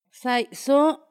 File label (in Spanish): lo comió, Paipai; Vocabulary; INALI